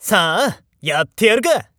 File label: nekketsu before